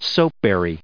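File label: "soapberry", US English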